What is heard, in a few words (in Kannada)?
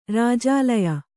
"ರಾಜಾಲಯ", Kannada; rājālaya; noun; a palace.